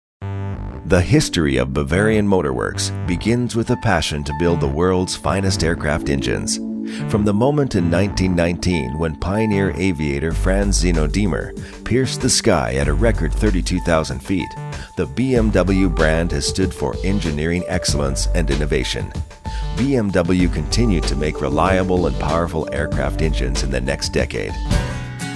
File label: narration